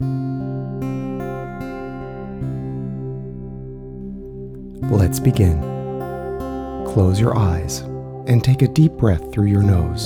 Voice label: love affirmations with music